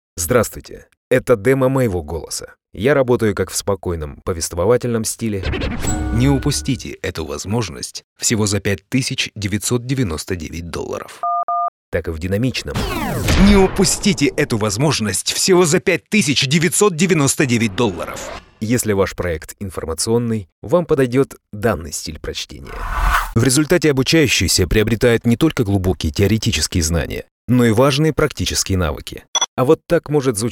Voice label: russian narration

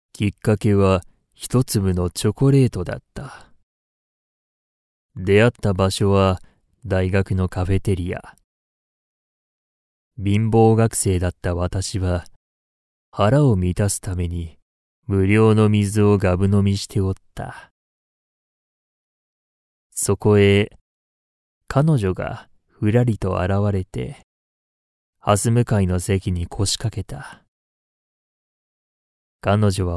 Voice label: japanese animation

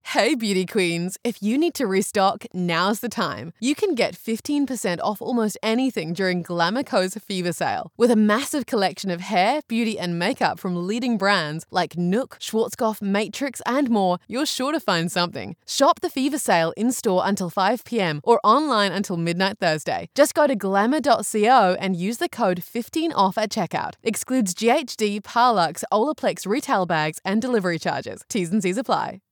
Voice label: english australian radio imaging

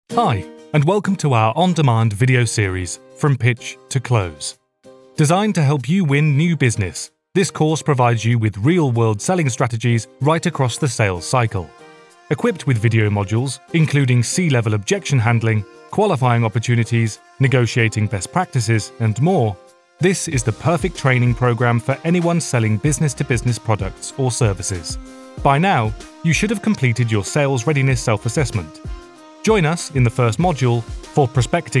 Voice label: english british e-learning

